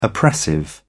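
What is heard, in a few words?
"oppressive", British English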